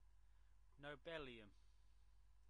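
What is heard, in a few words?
"nobelium", English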